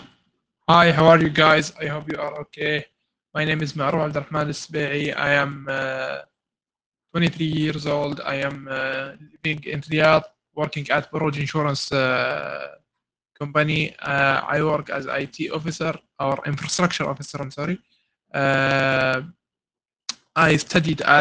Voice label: WhatsApp Ptt